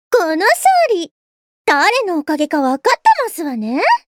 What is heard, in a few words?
Voice victory